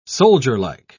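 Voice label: "soldierlike", English, British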